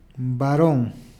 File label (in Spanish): "varón", Peru Cuzco